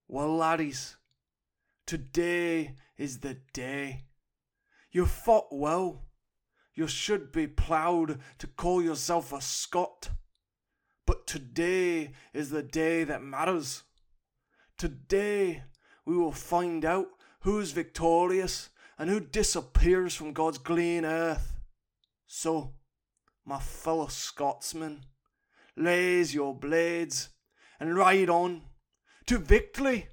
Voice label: VO Scottish